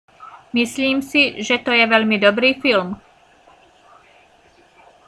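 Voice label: "myslím si, že to je veľmi dobrý film", Slovak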